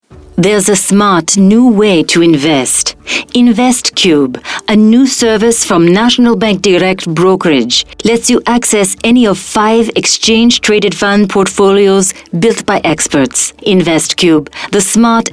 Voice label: Arabic